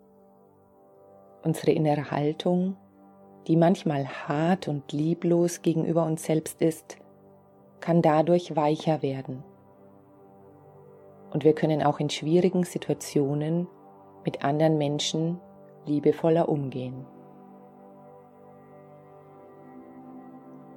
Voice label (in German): Metta Meditation Basis mit Musik